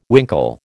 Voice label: "winkle", American

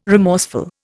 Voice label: "remorseful", Indian

